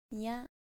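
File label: "mya", Japanese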